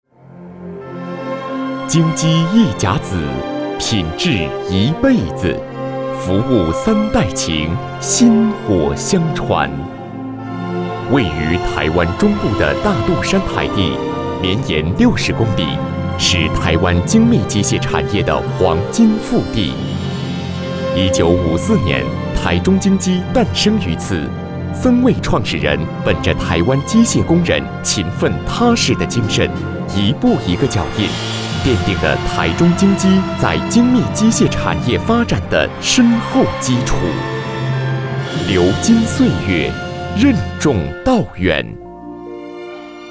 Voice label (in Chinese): 男, 专题, 深情大气